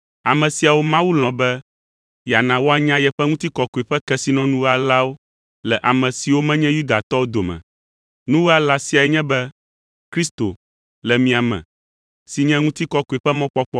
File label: ewe sent; bibleTTS; in-domain